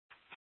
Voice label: Sheriffs Office, scanner